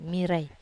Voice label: "marai", Wamesa